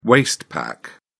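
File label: "waist pack", British English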